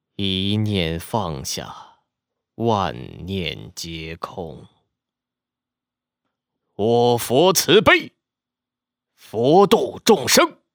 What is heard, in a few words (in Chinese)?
干音; 玄奘